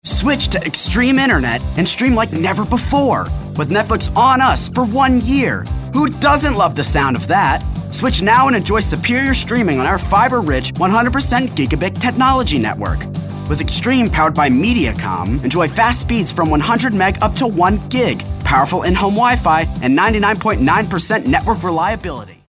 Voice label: upbeat